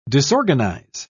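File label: "disorganise", English, British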